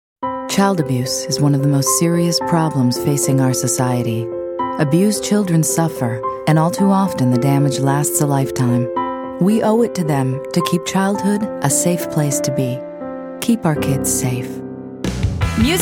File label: Commercial